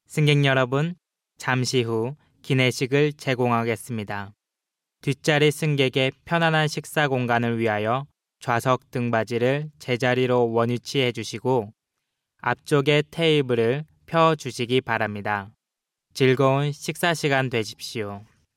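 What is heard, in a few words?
Korean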